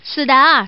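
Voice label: Woman, four with two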